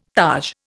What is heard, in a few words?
"taj", Indian